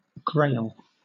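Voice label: "grail", British English; pronunciations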